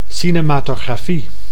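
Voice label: "cinematografie", Dutch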